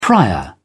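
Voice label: "prior", British English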